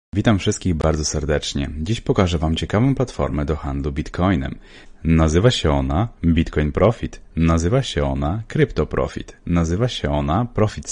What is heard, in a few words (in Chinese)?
波兰语男, 外语, 小语种